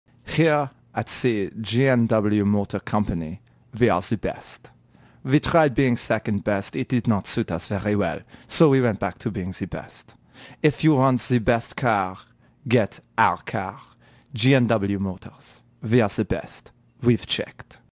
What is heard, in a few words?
German accent commercial; GNW Motors